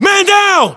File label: uk reaction casualty generic, battle chatter, English